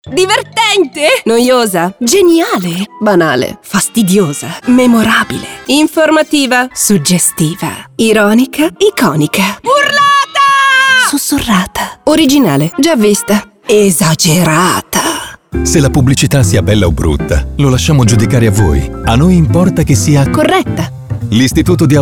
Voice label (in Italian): Radiocomunicato; 50 anni